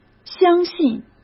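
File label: "相信", Mandarin Chinese; chinese flashcards; hsk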